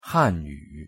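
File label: pronunciation "汉语", Chinese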